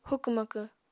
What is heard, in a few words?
"hukw-makw", apatani